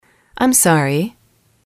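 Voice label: "im sorry", English, female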